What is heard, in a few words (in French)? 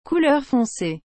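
"couleur foncée", French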